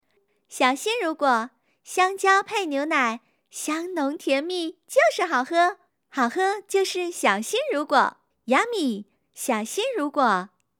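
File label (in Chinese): 国语 女声 国语广告 积极向上 亲切甜美; 小新乳果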